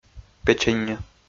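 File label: "печенньӧ", Komi, male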